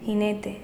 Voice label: jinete, es, Andalusien Sevilla